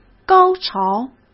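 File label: "高潮", Mandarin Chinese; hsk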